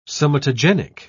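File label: "somatogenic", British English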